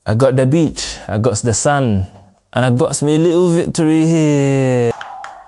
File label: happy, speech; fertig classic games